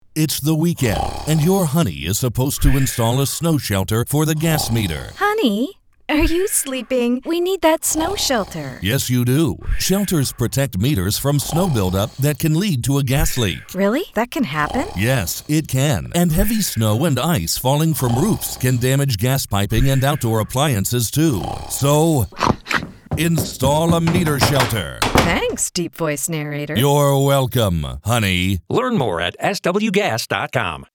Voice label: Radio Spot English; Snow Safety Radio Spot